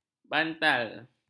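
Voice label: "bantal", Malay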